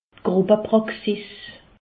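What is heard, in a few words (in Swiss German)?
"gruppepraxis", Alsace, Haut Rhin; medecine; médecine de groupe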